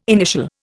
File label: "initial", Indian